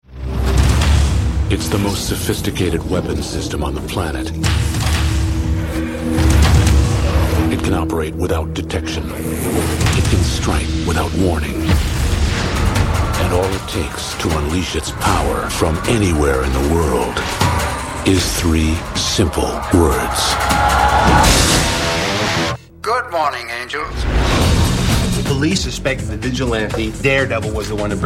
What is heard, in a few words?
trailer